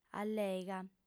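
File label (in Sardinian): "allega", lingua sarda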